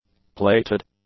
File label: "plated", english; adjectives